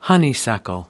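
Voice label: "honeysuckle", American English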